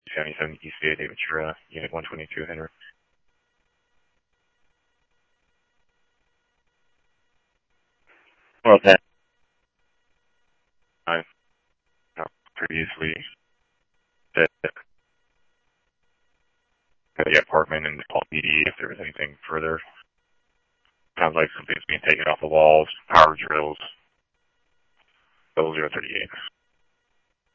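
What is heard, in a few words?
police radio dispatch, Scottsdale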